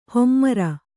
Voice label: "ಹೆಮ್ಮರ", Kannada